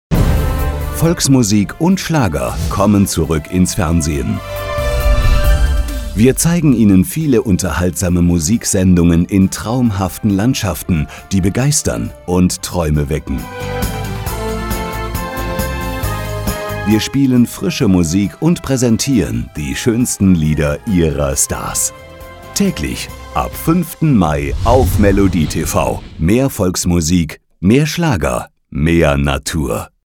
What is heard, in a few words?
Radio Trailer